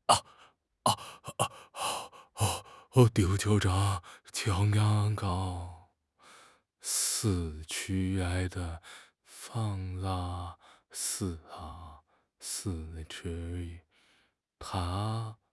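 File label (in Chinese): "强迫", Chinese; HSK; HSK6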